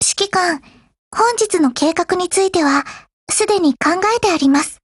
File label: HELLO JP; GF Alarm